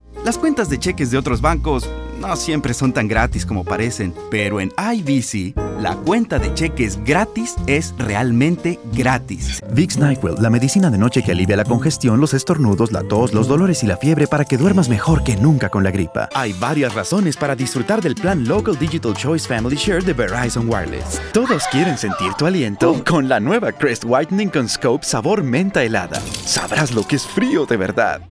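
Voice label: Spanish, commercial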